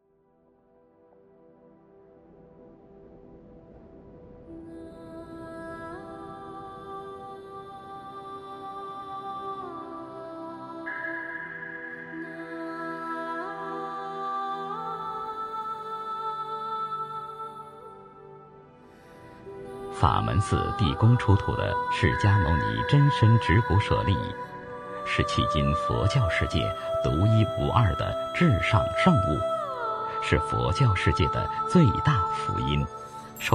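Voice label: boy culture, Chinese; wenhua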